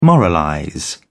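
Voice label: "moralize", British English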